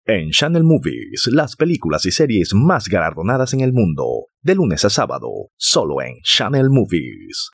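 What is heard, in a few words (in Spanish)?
promo TV medium tone off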